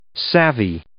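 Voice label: "savvy", English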